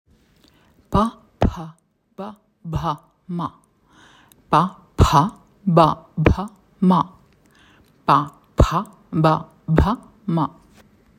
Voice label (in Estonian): pa pha ba bha ma, sanskriti; Huulhäälikud (labial)